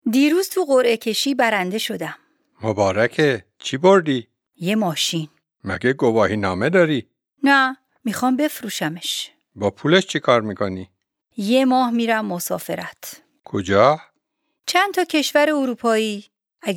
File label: Dialogue lesson Farsi